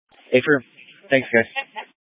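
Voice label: Incident Tac 1, Scanner audio recording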